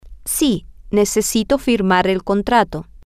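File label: yesINeedToSignTheContract, Spanish, female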